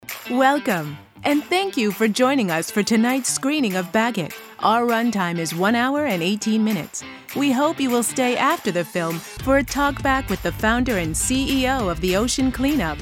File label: english american announcements